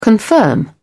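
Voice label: "confirm", British English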